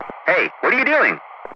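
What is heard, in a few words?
"Hey What are you doing", English, Ground Crew; DCS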